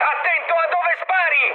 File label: player friendly fire mood high, speech it